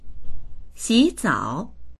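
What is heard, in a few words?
"xi3zao3", Chinese; xǐzǎo; 洗澡; 入浴する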